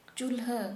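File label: Santali, female, cooking pit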